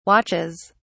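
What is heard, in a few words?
"watches", British English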